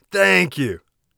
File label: thankful, warrior voice; Warrior Voice Pack